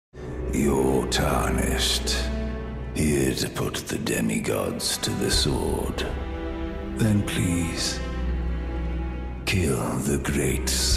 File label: Game Voices; Compilation – Various Styles